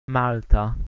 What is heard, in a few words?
"maltha", Ancient Greek